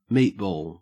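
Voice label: "meatball", Australian English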